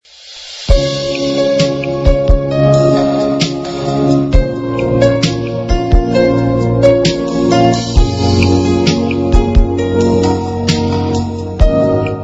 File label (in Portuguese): Mensagem Amiga F